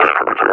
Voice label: reconnoiter start, officer; Half Life Alyx; Half Life Model Pack